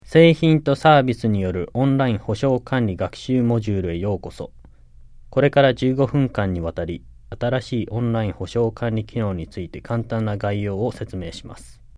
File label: JAPANESE; delltranslations